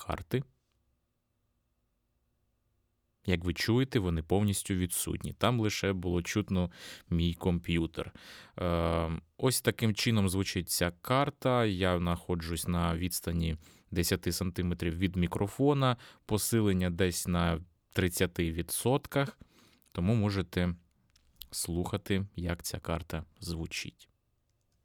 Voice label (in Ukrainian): lewitt connect 6 golos zvichajni nalashtuvannja; Lewitt Connect 6 - Голос звичайні налаштування; Lewitt Connect 6 – аудіоінтерфейс за 300 $, з можливістю запису в двоканальному режимі